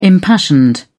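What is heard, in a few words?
"impassioned", British English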